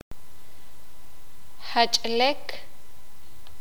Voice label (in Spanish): torcido, sakapulteko